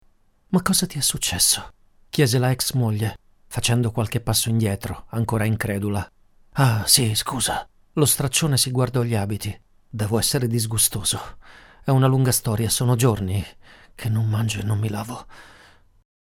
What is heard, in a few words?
audiobook